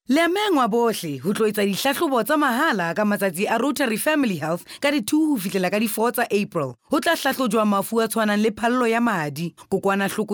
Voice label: female sesotho voice over narrative